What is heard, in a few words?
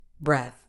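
"breath", British English; breθ; breth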